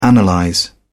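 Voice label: "analyze", British English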